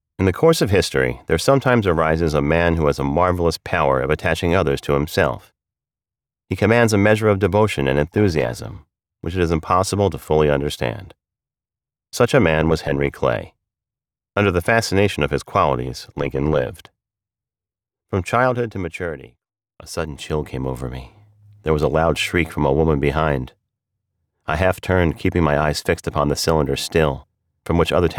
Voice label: Audiobook